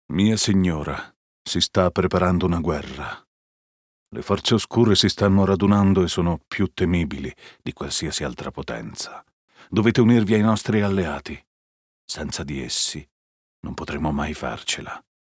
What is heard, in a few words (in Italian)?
ITA character Knight